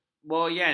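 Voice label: "boyan", Malay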